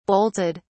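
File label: "bolted", British English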